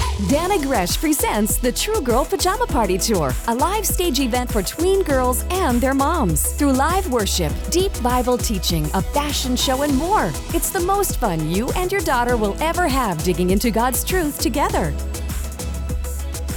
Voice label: radio ad; TG PJ Party